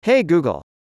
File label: hey g us male